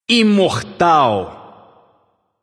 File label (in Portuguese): alert notify kill streak, vox caster portuguese